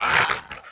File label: Young